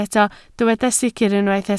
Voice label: "sicr", Welsh